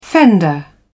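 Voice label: "fender", English, British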